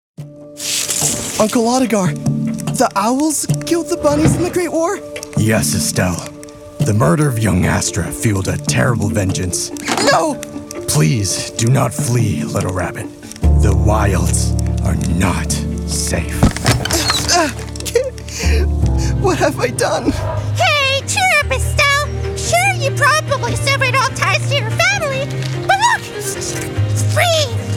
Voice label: Animation